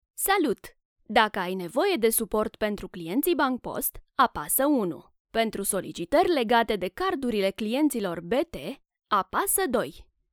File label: romanian ivr